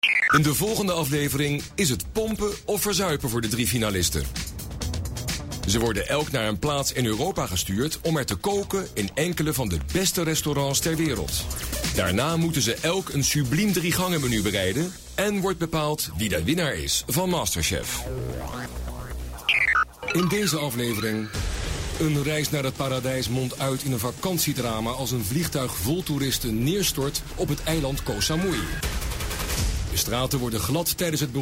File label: GB TV voice overs